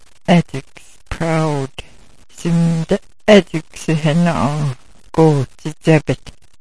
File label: "adziks", smalgyax